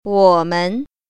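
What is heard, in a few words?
"wo3men0", Mandarin Chinese Tone Pair Drills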